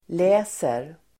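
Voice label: "läser", Swedish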